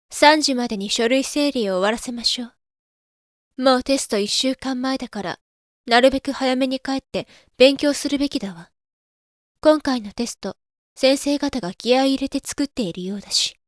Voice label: girl